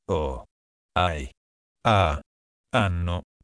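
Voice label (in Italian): "acca", italiano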